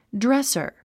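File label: "dresser", English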